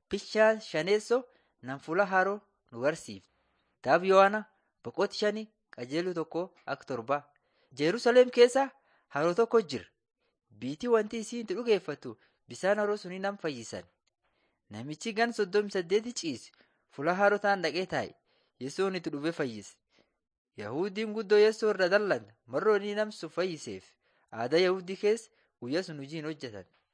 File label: borana sakuye